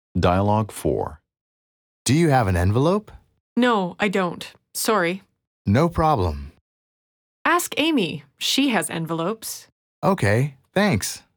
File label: Dialogue natural